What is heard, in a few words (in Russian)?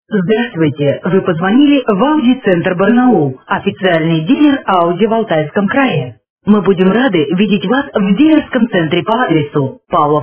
Автоответчик